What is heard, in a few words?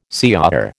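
"señor", American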